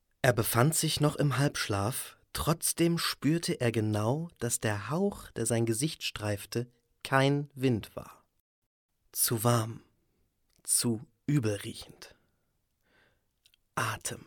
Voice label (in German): Hoerbuch